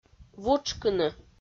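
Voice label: "вутшкыны", Komi, female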